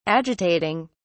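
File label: "agitating", British English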